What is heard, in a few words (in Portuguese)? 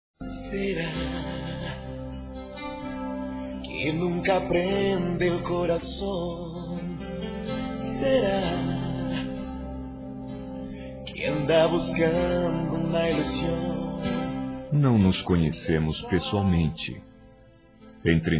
amizade por telefone aniv., Amizade Masc; Telemensagens